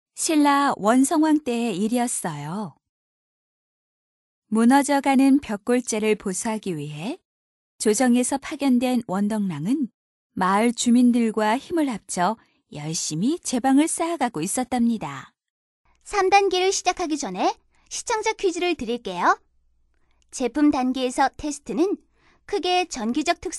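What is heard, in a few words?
Korean, female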